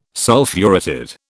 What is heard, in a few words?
"Sulphuretted", British